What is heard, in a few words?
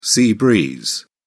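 "sea breeze", English, British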